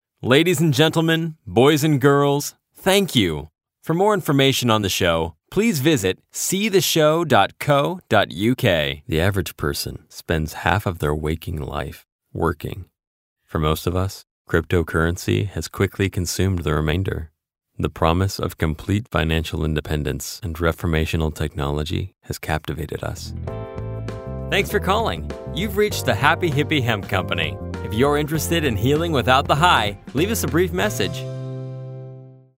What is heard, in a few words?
English American